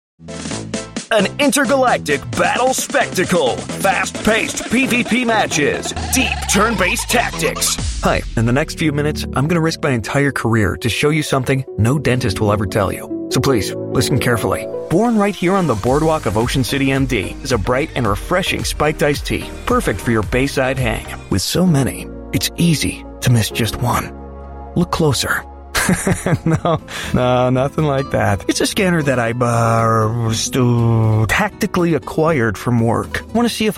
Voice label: commercial

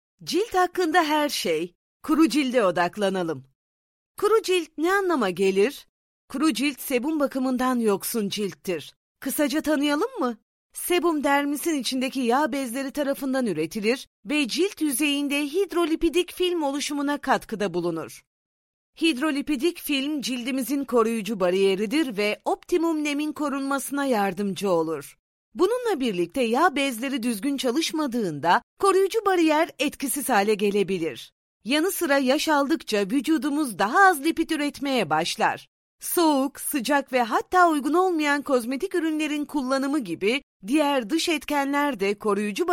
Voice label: e-learn; yves rocher

